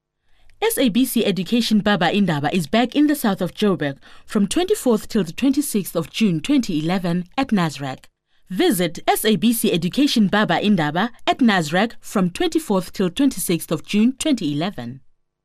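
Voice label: english South African female commercial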